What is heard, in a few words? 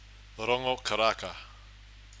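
"rongo karaka", Maori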